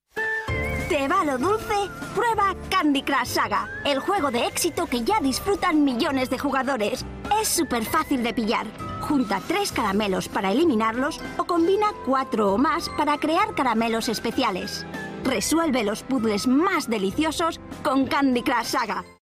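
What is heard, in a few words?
Spanish, female, Spanish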